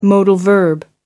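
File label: "modal verb", British English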